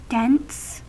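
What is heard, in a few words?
"dense", US English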